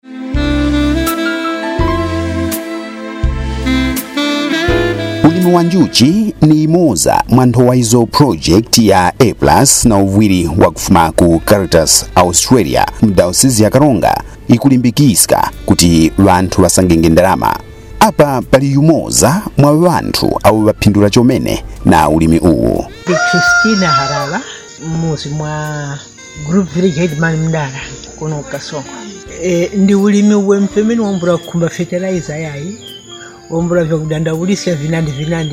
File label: A BEE KEEPING TESTIMONIAL